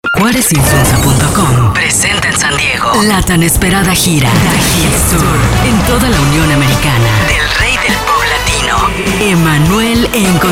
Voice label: spot fem